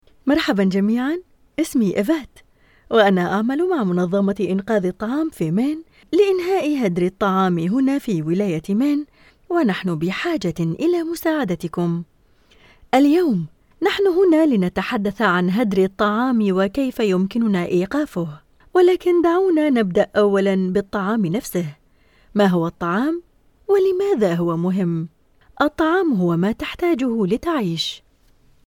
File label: Narration Food waste raw; MSA